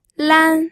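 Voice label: "lan", first tone, pinyin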